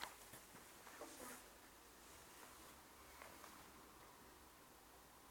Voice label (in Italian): vocalizzo isolato